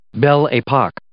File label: "belle epoque", English